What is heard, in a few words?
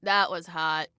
Taunt Sarcasm, Female